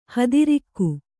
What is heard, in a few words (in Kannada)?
"ಹಾದರಿಗ", Kannada